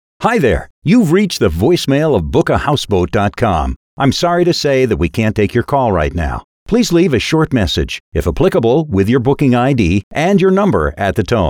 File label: On Hold Greeting